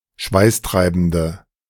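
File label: "schweißtreibende", German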